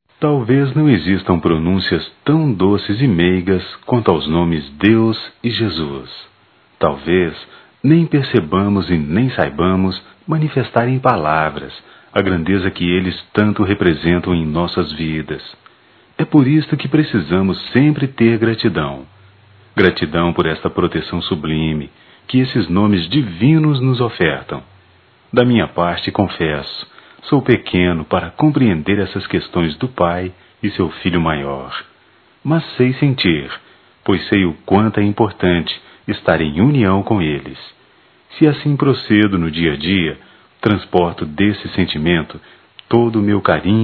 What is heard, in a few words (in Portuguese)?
Homenagem geral m, Telemensagens; Homenagens; Telemensagens 2017